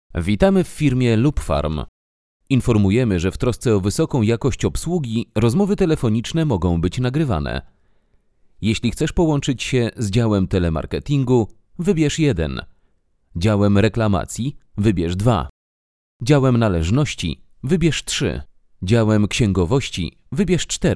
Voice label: polish IVR